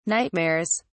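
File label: "nightmares", English, British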